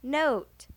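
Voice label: "note", English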